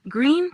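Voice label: "Green", pronunciation; colors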